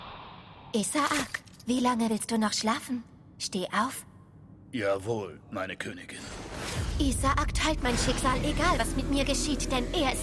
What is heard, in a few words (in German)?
synchron anime